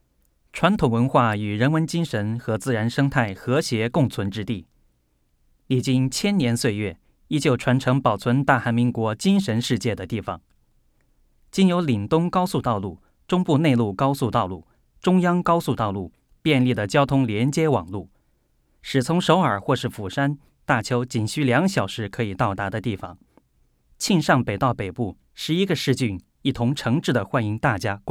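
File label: chinese mandarin male narration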